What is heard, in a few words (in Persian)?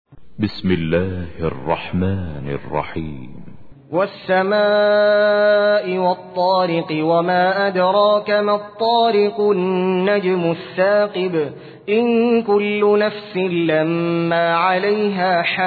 ترتیل; سوره طارق